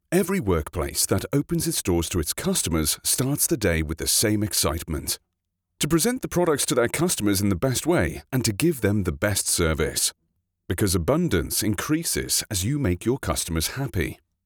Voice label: English voice over